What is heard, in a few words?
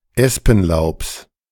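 "Espenlaubs", German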